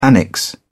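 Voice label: "annexe", British English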